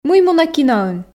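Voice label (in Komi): мый мунӧ киноын, Komi, female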